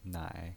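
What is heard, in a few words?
"nai", pinyin voice, Mandarin Chinese, third tone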